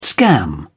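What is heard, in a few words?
"scam", British English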